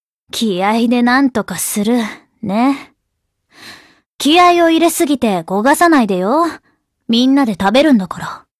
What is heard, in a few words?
botch voice; 倉敷 舞子; 八月のシンデレラナイン(ハチナイ)